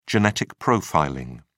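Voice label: "genetic profiling", British English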